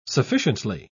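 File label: "sufficiently", British English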